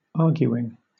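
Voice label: "arguing", British English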